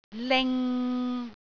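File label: "ling6", Cantonese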